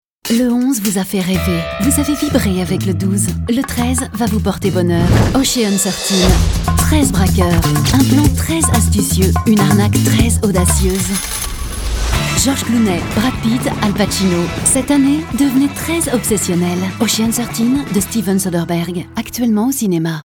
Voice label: bande annonce; OCEAN13

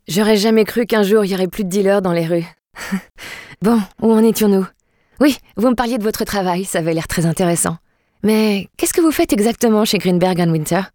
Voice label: jeu video jeune journaliste

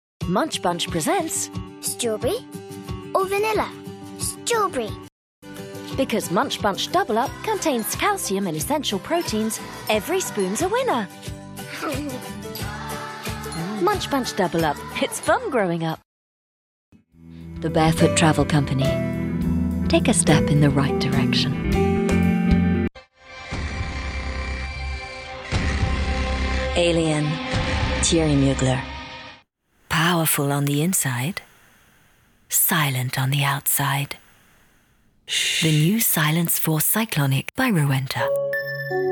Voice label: Medley Pub Anglais

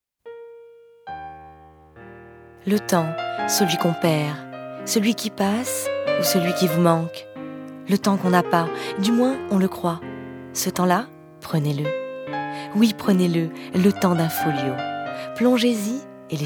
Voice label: VOIX Calme douce; FOLIO

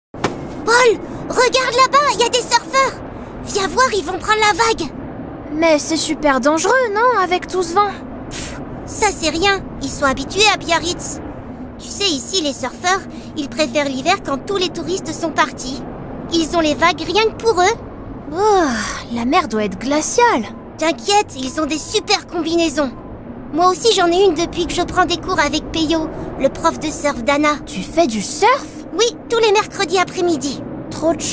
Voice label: Voix garcon; Club basquets

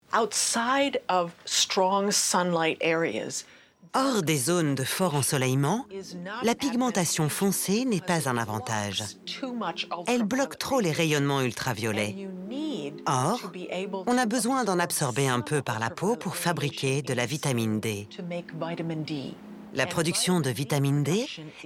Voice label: Ton explicatif

